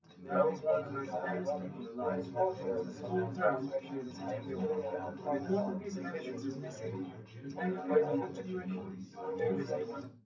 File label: male4; SiSEC10; underdetermined